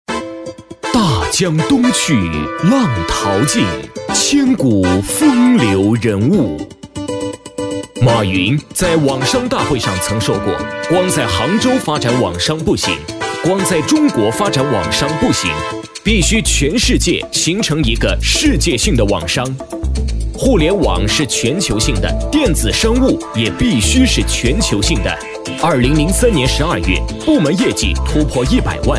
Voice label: 男 专题 节奏自信, 国语男声配音, 浑厚专题; 淘宝网商会

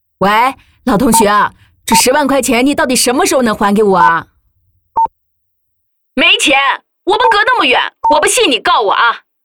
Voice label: 角色老人; 还钱

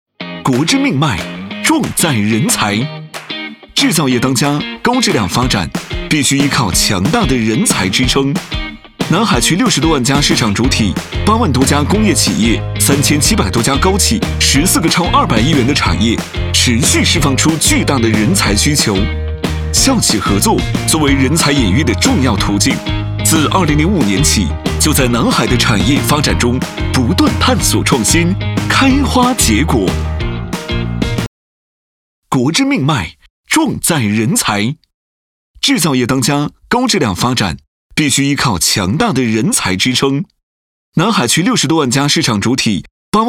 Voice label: 男 活泼企业宣传片; 南海企业

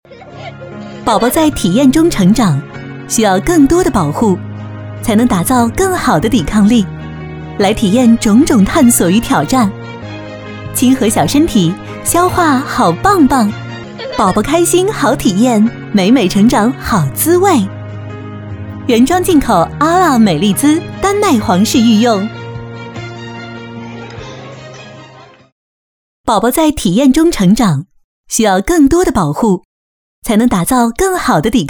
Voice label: 女 品牌广告 母婴奶粉; 美力滋

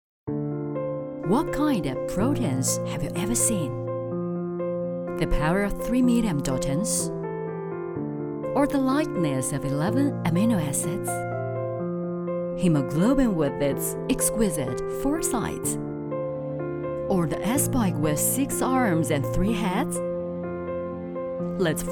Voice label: 女 英语 宣传广告

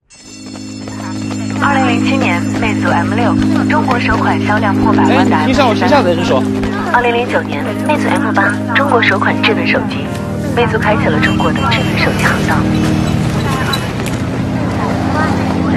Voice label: 男 品牌广告; 魅族TVC

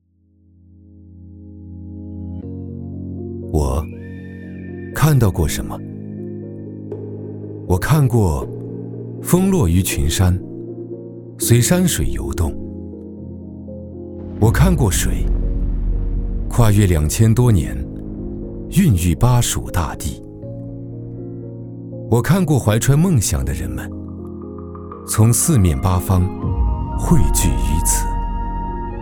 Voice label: 男, 高端 自然

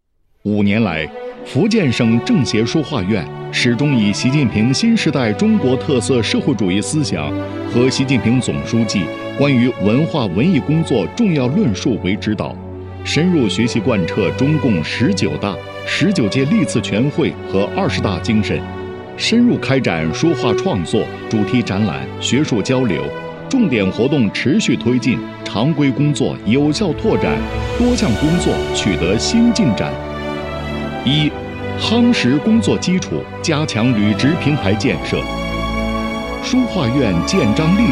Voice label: 男 书院宣传片

